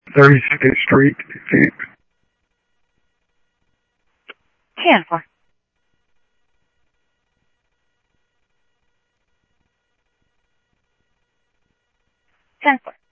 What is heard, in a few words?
Central Sheriff Central, scanner audio trunk